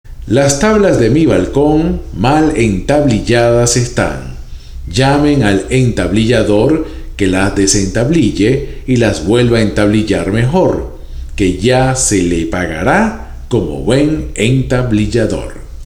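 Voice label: entablillador, spanish tongue twisters; Spanish Tongue Twisters To Perfect Your Pronunciation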